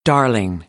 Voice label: "darling", American